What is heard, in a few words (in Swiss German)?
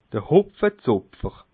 "hopfezopfer", alsace